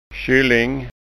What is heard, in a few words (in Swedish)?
"kylling", skanska